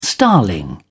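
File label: "starling", British English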